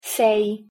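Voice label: "sei", pronunciation, Italian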